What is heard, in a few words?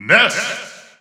English Announcer; Ness; SSB4; SSBU